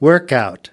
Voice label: "workout", us